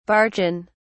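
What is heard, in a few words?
"barchan", British English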